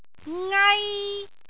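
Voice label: "ngai1", Cantonese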